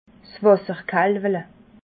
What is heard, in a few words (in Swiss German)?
"wasserkalwele", alsace